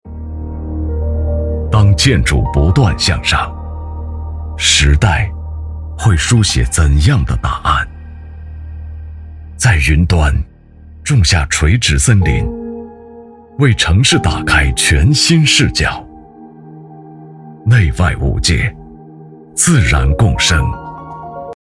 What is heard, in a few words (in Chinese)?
男 广告; 新中宇·新晨